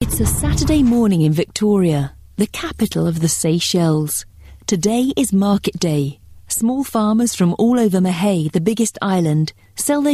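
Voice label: British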